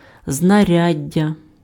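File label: "знаряддя", Ukrainian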